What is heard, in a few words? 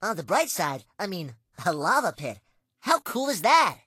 English Voice